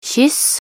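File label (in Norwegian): "kyss", Norwegian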